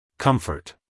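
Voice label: "comfort", American English, male; everyone-can-use-english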